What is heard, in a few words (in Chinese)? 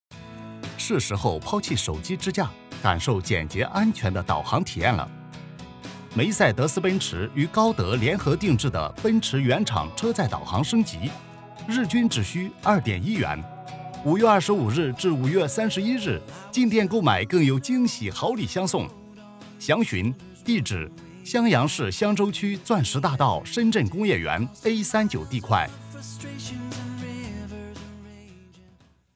广告奔驰导航升级